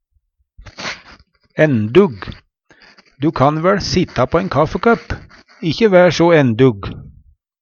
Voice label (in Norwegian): endug, Numedalsmål; Adjektiv